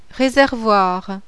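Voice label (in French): "RESERVOIR", French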